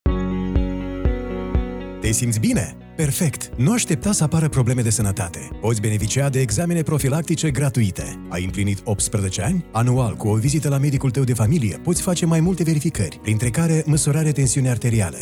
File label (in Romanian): spot audio; CNAM; asigurarea medicala protectia ta zi de zi